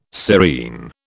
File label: "serene", British